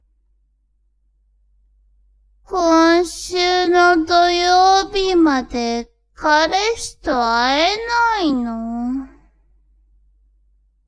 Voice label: woman